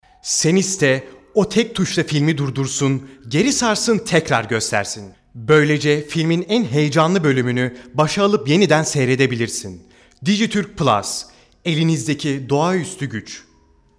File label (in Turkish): REKLAM